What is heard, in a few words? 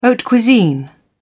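"haute cuisine", English, uk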